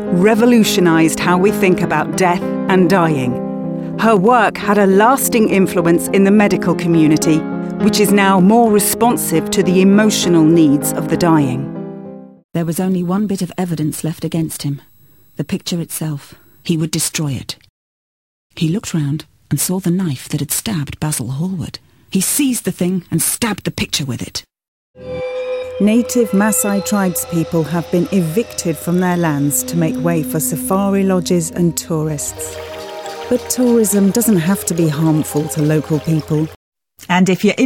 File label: Narration